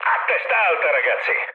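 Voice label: Italian near waypoint mood med, speech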